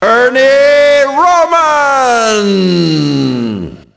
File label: announce, ENGLISH; Rocky; XBOX